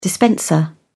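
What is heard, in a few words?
"dispenser", English, British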